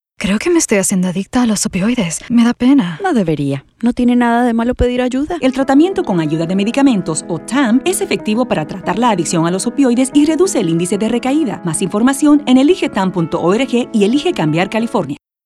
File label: Radio ESP